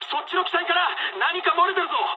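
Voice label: Japanese tank damaged mood high; War Thunder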